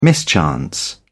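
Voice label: "mischance", British English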